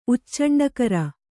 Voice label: "ಉಚ್ಚಂಡಕರ", Kannada

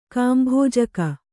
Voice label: "ಕಾಂಭೋಜಕ", kannada

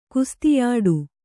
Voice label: "ಕುಸ್ತಿಯಾಡು", Kannada

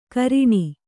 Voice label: "ಘ್ರಾಣ", Kannada

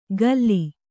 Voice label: "ಕಲ್ಲಿ", Kannada

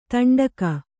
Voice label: "ತಂಡಕ", Kannada

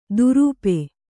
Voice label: "ದುರೂಪೆ", Kannada

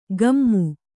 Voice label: "ಗಮ್ಮು", Kannada

